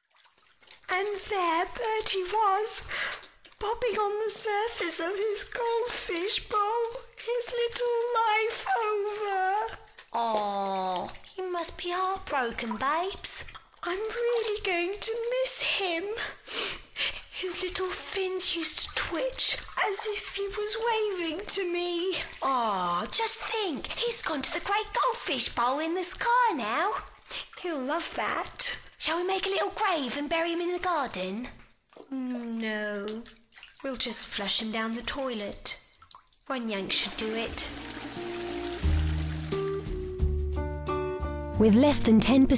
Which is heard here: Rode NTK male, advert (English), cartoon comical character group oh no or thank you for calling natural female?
advert (English)